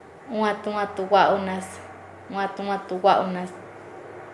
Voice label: Nasa Yuwe; hablar con orgullo; paez

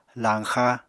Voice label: "lañxa", Apatani

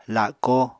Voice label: "lako", apatani

